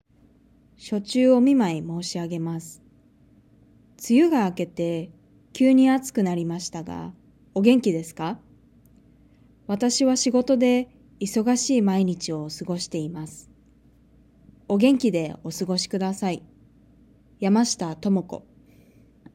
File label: Reading natural; OJAD